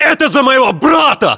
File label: inform killfirm infantry, battlechatter ru; localized english